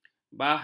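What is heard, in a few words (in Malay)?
"bahan", Malay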